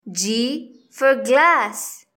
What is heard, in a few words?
"g glass", english; grade1; identifying letters i